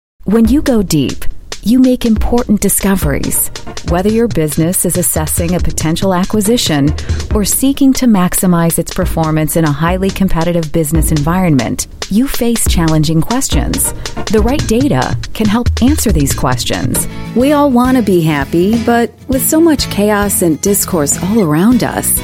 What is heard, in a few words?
US English Narration